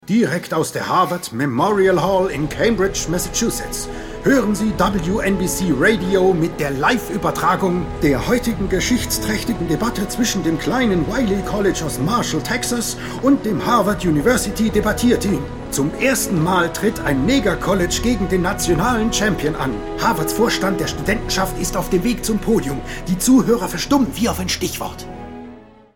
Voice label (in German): Werbung Dynamisch